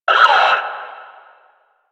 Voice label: Enemy Pain; Warframe; Lasrian; Lasrian Enemy C

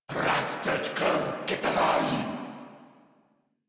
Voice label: Attack Corrupted Grineer Male

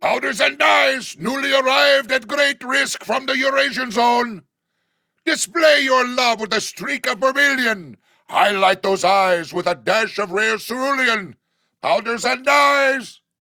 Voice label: Ost Male en; Cetus

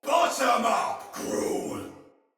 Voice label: Attack Heavy, Soldier Male; Barks Battle; Sinecure Soldier Male B

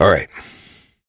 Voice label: Horse Start Adult, Dialog; Duviri

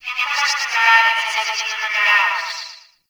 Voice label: campsite announcement; Warframe; Tau

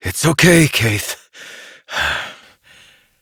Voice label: Horse Calm Adult; Duviri

